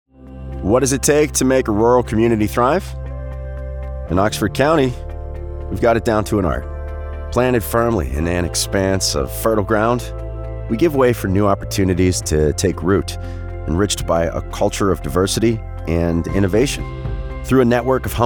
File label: believable conversational; oxford county